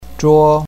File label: "zhuo1", Chinese pinyin